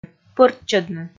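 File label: "пӧрччӧдны", Komi, female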